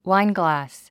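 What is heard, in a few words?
"wineglass", English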